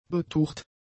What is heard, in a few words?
"betucht", German; adjectives